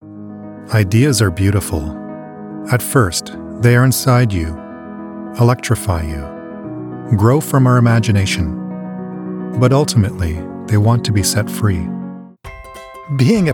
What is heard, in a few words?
COMMERCIAL; professional voice talent based in Canada